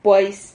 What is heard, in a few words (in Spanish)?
"puais", Silabas